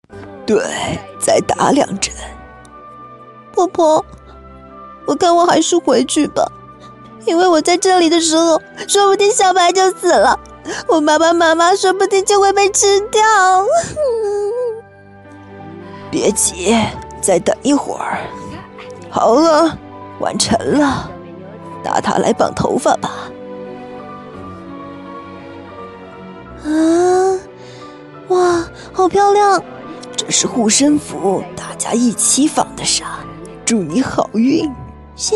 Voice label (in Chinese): 多角色演绎; 汤婆婆千寻; 千与千寻